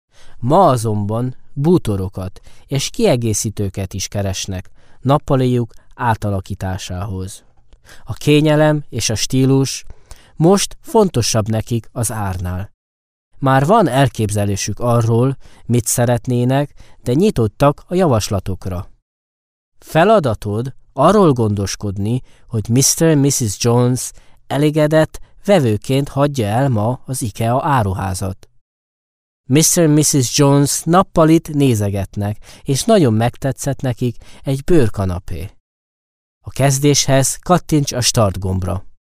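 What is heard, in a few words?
Hungarian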